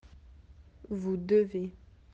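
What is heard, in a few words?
"devoir", French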